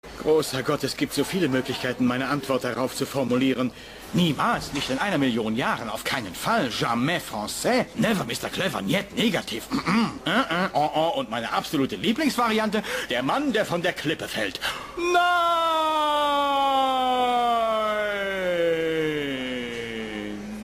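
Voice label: "Nein", German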